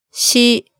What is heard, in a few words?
"shi", hiragana